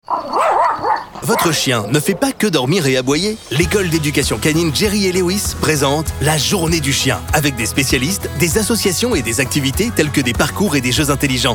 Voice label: Pub Radio; RadioChablais; la journee du chien